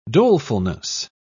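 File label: "dolefulness", British English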